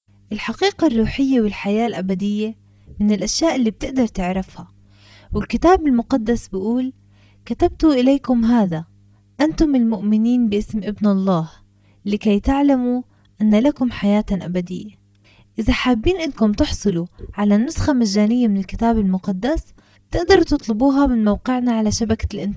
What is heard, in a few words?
spot slowed